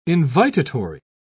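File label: "invitatory", British English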